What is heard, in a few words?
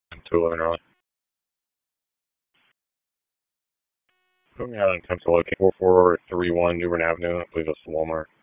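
Police District Dispatch; Raleigh Police Northeast District Disp; wake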